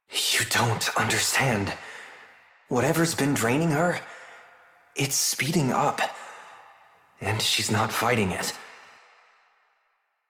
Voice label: Operator Voice; en; Warframe; Jade Shadows Quest